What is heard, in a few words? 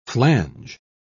"flange", pronounce